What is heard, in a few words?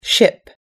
"ship", us english